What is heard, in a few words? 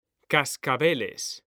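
"cascabeles", Spanish, male; mekanta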